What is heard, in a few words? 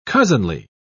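"cousinly", British English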